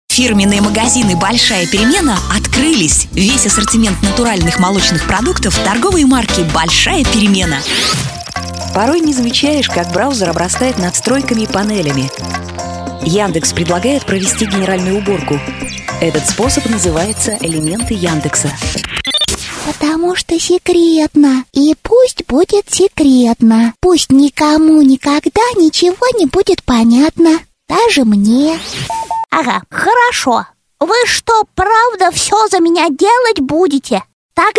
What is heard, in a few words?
Rus